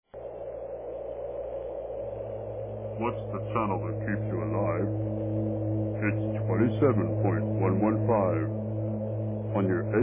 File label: station id